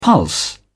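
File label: "pulse", British English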